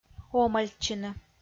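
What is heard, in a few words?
"омӧльтчыны" Komi-Zyrian, female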